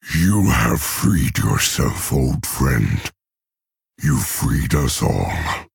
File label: Male Orc Victory